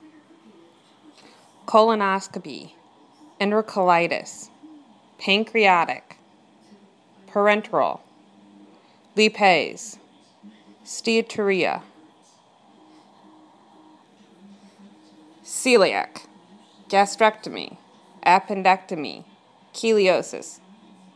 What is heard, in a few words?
homework pronunciation